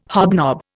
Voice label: "hobnob", Indian

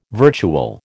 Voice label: "virtual", American

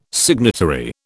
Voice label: "signatory", British

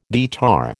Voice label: "guitar", American

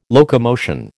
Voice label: "locomotion", American

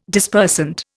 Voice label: "Dispersant", Indian

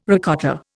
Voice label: "ricotta", Indian

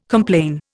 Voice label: "complain", Indian

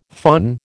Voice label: "faun", American

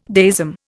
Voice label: "deism", Indian

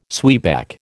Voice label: "zwieback", American

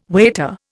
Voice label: "waiter", Indian

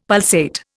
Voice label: "pulsate", Indian; Dictionary

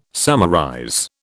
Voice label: "summarize", British

